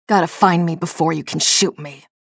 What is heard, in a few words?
chatter neutral; nyx